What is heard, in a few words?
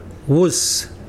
banana, Mokilese